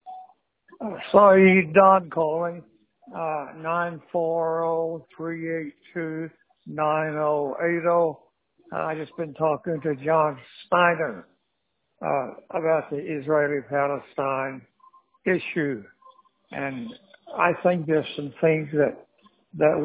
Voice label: voicemail